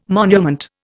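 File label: "monument", Indian English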